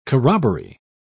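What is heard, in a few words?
"corroboree", British English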